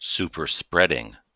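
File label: "super-spreading", US English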